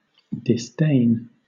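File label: "disdain", British English; pronunciations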